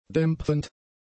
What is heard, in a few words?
"dämpfend", German; adjectives